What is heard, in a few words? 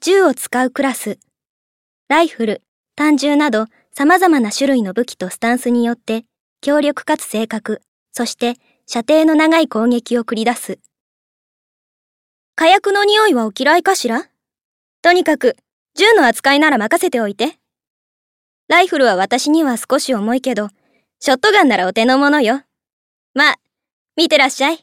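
voice intro, female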